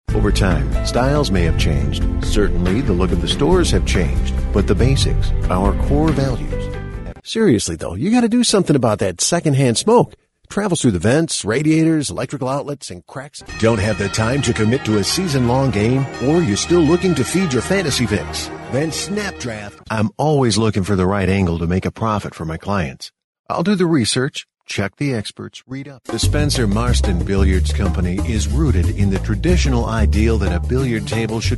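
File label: English American male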